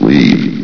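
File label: "leave", English, station announcer voice; S.P.L.U.R.T-Station-13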